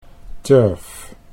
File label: "turf", Dutch